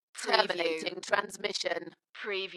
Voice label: UK female, Terminating transition, Dalekness; beanstalk audio